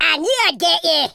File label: revenge, Scotsman; speech